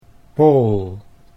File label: "pool", Dutch